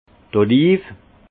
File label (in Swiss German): "olive", alsace; vin